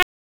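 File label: Police Dispatch; wake; p25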